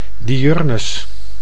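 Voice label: "diurnus", Dutch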